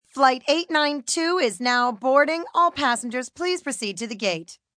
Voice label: Flight Boardng, Airport, PA, announcements, female; Pa Announcement Sound Effects